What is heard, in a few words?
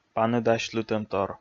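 "паныдасьлытӧмтор", Komi, male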